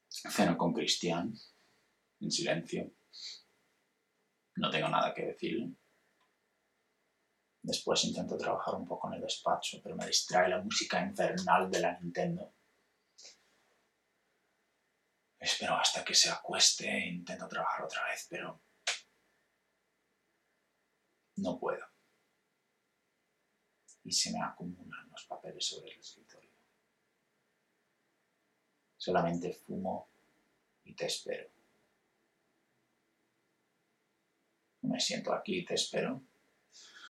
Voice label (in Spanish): monologo castellano